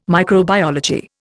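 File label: "microbiology", English, Indian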